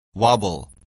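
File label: "wobble", English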